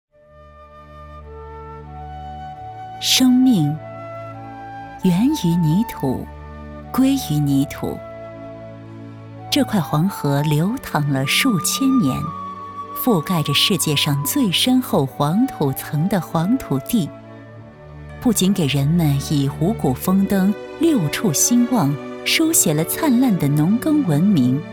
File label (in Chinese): 专题 陶艺简介 娓娓道来